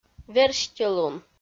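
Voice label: "верстьӧлун", Komi, female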